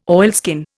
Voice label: "oilskin", Indian